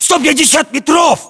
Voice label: threat distance, ru; localized english